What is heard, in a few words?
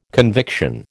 "conviction", American